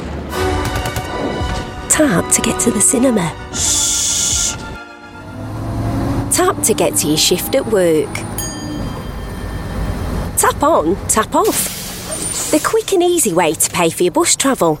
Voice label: yorkshire